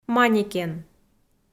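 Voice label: "манекен", Komi, female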